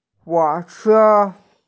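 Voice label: "huo3che1", Mandarin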